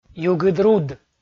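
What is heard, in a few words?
"югыдруд", Komi, male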